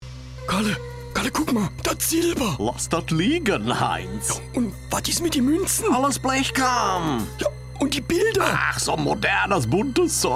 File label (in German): dialekt